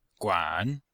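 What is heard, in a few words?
"guan3", Chinese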